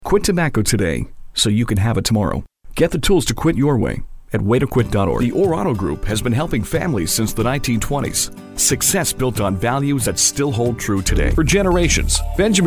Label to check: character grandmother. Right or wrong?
Wrong